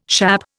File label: "schappe", Indian